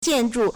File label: "jian4 zhu4", Chinese